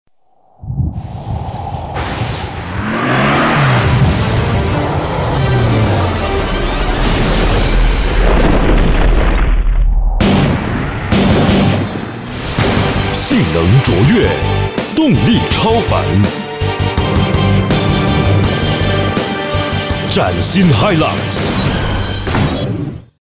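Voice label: Mandarin, TV; Toyota Hilux; 30s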